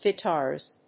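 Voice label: "Guitars", English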